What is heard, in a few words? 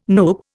"NUPE", Indian